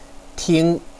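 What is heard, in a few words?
"ting1", Chinese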